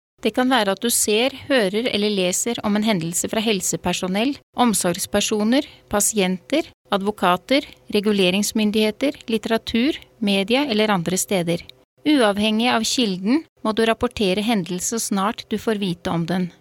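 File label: Norwegian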